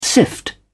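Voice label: "sift", British English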